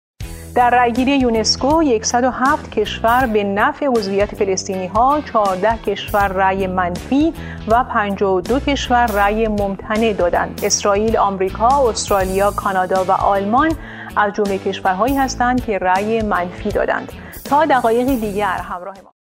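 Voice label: farsi female narration; voice demos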